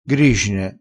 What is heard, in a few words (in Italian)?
"gricine", crespinese